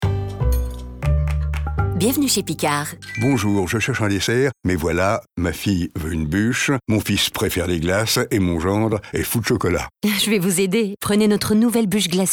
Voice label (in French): pub comedie; picard buche